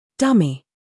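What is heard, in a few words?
"humor", British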